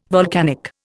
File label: "volcanic", Indian